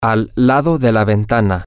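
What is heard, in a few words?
next to the window, Spanish, male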